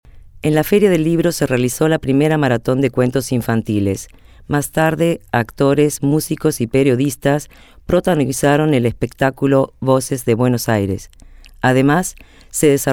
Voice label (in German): Argentinien W